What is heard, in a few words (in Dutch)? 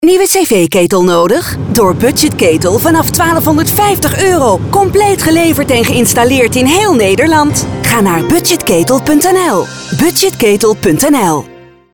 Commercial NL Radio; Budgetketel; Groot Nieuws Radio